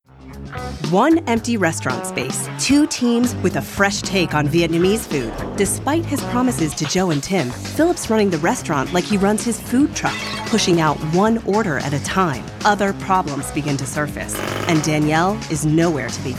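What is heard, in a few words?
female, American English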